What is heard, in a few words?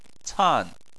"can4", chinese